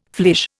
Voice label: "flèche", Indian

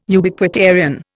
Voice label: "Ubiquitarian", Indian

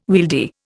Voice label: "wieldy", Indian

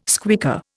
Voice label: "squeaker", Indian

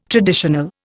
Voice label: "traditional", Indian

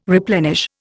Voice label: "replenish", Indian

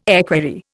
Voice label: "equerry", Indian

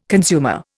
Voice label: "consumer", Indian

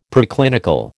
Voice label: "Preclinical", American

